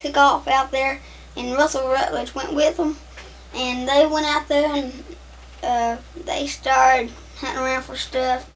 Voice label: Conversation with 13 year old white male West Virginia; american english dialect